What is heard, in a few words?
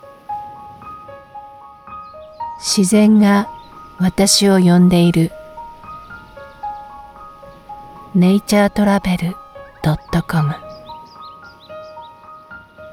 Commercial in Japanese